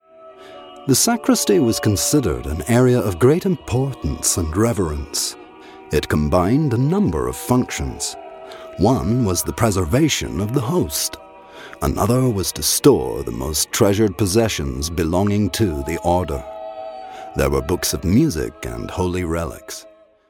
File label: Gregorian Chant Docu Narration